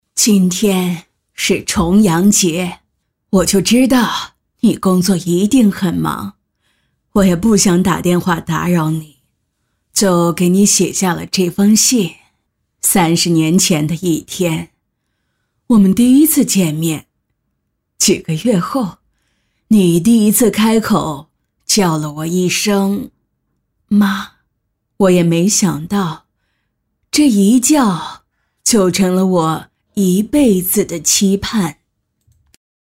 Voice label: 女-模仿-六十岁老奶奶

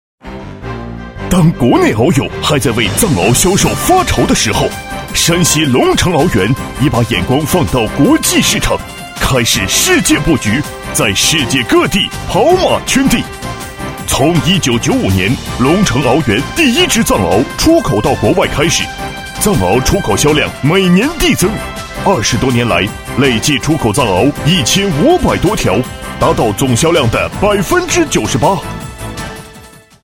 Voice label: 男 广告 电购; 龙城獒园

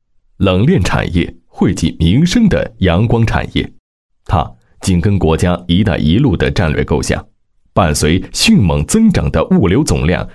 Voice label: 男38 专题; 金普物流园

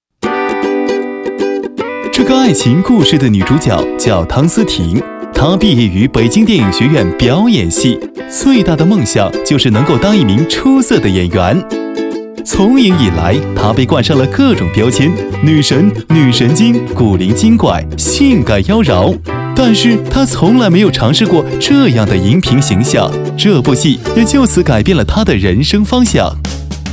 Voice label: 男, 广告, 文娱播报

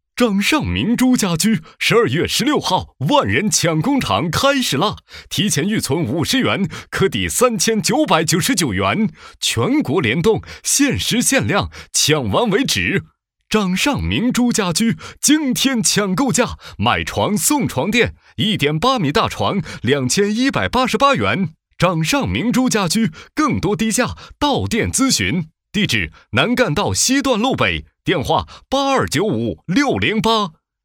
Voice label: 男 促销; 家具; 掌上明珠家具

